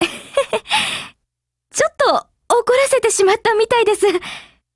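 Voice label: break, Japanese; GF Alarm; Carcano1891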